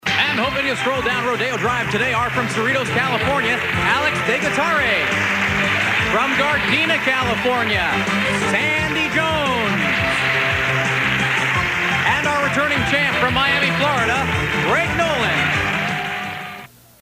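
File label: Rodeo Drive anncr, voice-over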